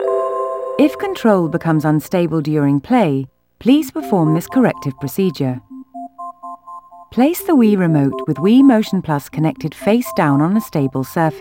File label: Recalibrating Motion Plus (English); the legend of zelda skyward sword wii gamerip 2011